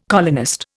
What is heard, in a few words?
"colonist", Indian